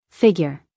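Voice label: "figure", American English, female; 1000 hours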